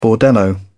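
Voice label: "bordello", British English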